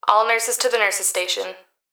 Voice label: Emergency Human Female Voice Hospital Calls All Nurses To The Nurses Station; emergency effects